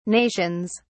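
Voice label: "nations", British English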